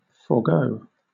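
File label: "forego" British English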